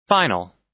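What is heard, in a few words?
"final", US English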